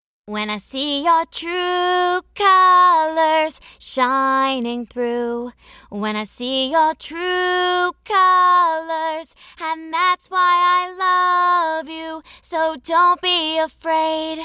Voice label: Narration